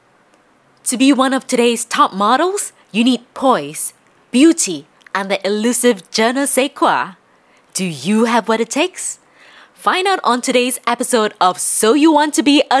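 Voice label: Hong Kong English Fashion, Cantonese Female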